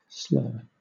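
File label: "slur", British English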